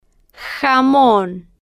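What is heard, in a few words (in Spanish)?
"jamon", Spanish, female, slow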